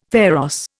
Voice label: "pharos", Indian; Dictionary